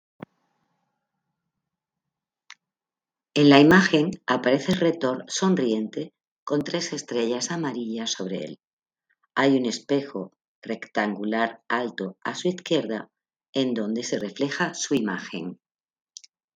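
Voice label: count to five in french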